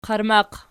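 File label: "qarmaq" nominative, Archi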